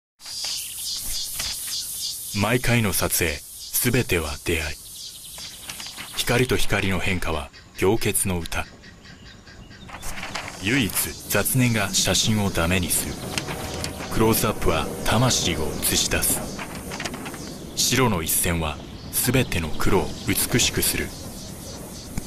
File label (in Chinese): 日語男聲